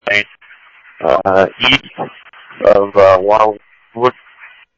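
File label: Simulcast police department, scanner audio trunk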